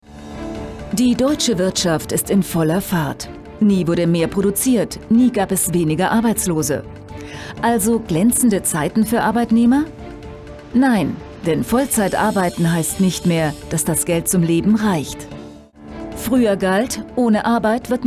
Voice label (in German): Doku; Arm trotz Arbeit; ZDF; Drehscheibe